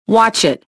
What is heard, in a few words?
suspicious; XBOX